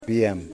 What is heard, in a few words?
"Fish Spear", Yimas